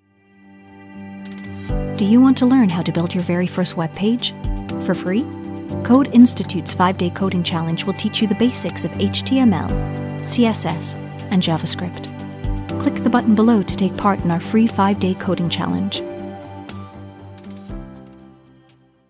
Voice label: Corporate